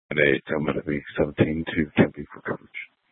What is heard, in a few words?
Police Dispatch, scanner; Arizona State University - Tempe Campus; Channel 1